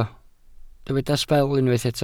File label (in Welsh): "sbel" Welsh